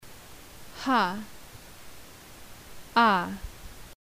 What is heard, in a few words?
alphabet, Tibetan